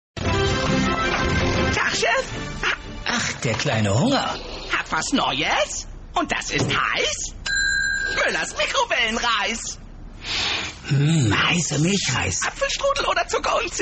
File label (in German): trickstimme